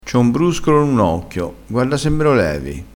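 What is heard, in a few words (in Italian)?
"bruscolo", dialettale crespinese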